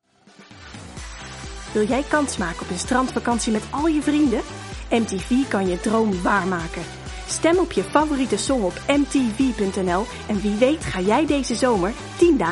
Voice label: Dutch F Documentary; voice over

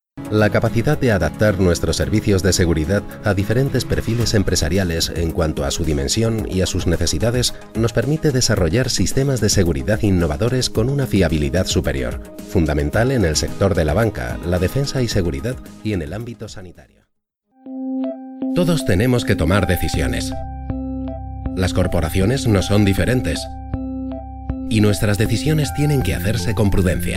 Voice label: Spanish EU, M, Corporate

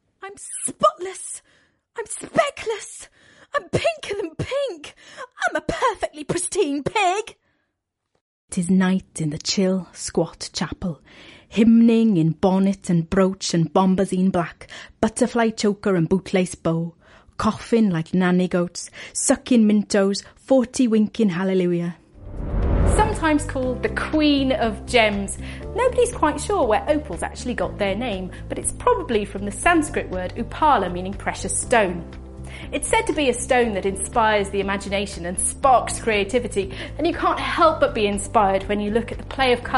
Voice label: Welsh English F Characters; accent of wales

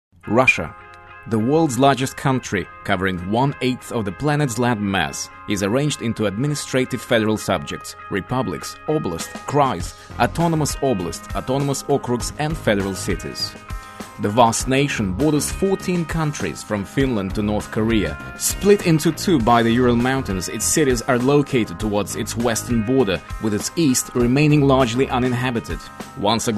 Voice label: Russian English M Narration; accent of russia

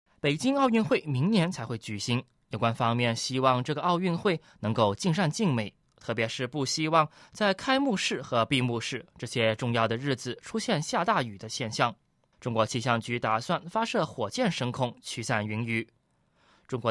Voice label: Mandarin Chinese; voice over

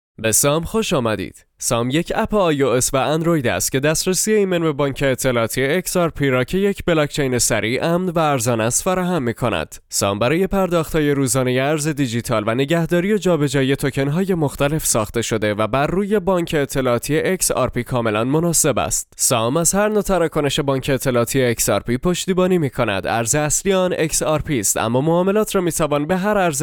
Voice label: Farsi M

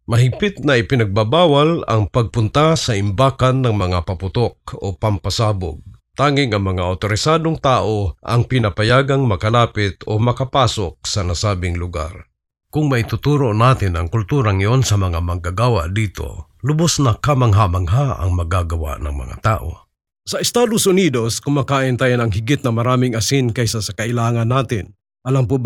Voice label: Tagalog M Voicereel; filipino

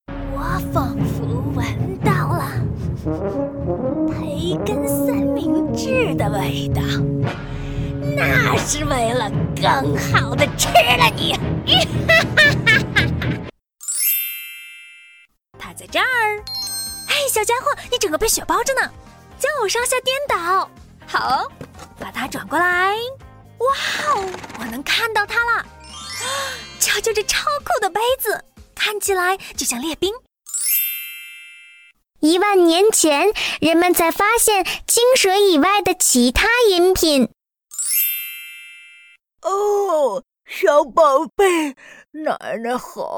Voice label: Chinese Mandarin F Characters; voice over

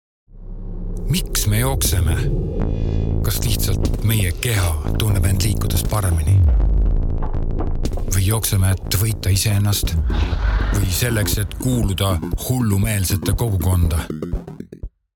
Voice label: Estonian, M, Inspirational

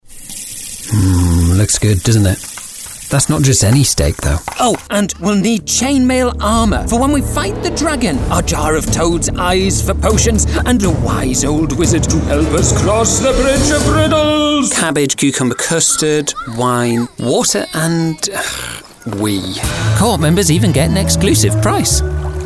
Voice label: Commercial, Neutral, accents of england

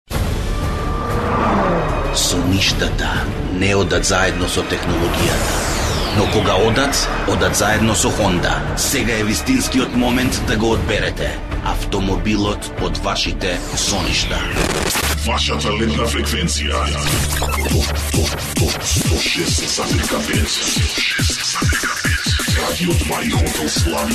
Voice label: Macedonian M ads

